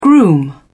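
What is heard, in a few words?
"groom", British English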